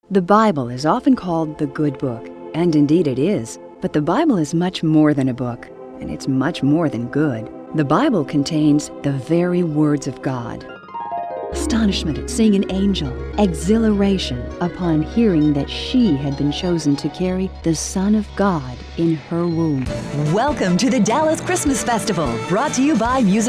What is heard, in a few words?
voice religous